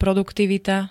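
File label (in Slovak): "produktivita", Slovak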